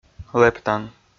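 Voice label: "лэптан" Komi, male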